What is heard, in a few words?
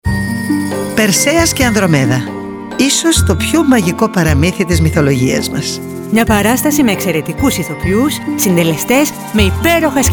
RADIO SPOT; ΠΕΡΣΕΑΣ ΚΑΙ ΑΝΔΡΟΜΕΔΑ